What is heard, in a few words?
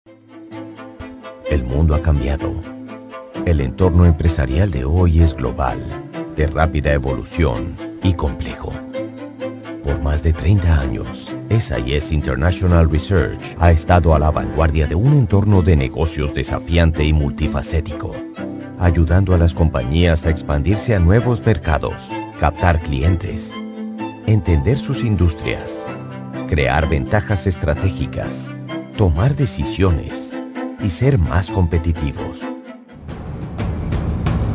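CORPORATE SPANISH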